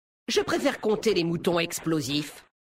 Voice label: Homme Blague; Mecagnome; Moutons

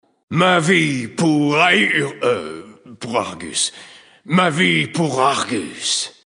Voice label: Male; Draenei Sancteforge; Blague

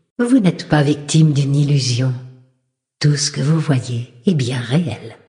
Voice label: Female Flirt, voix francaises; Sacrenuit; warcraft; races alliees

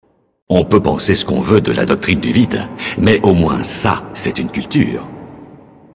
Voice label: Male; Elfe du Vide; Blague

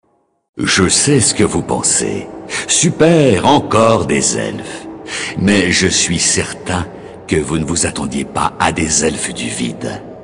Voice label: Male Blague; Elfe du Vide

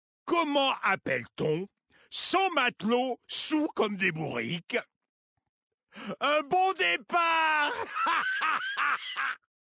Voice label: Homme Blague; Matelot; Kultirassien

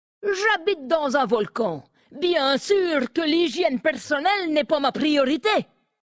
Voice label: Femme Blague; Hygiene; Nain sombrefer

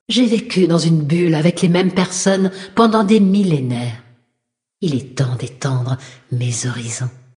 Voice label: Female Flirt; Sacrenuit

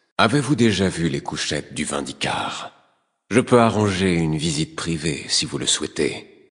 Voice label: Male Flirt; Draenei Sancteforge

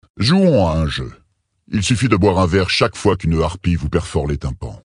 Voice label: Male Blague; Tauren Haut Roc; Harpie

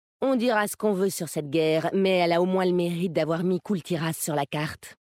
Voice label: Femme Blague; Carte; Kultirassien

